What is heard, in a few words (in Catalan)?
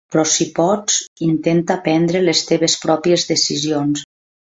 "teves", catala; mozilla voice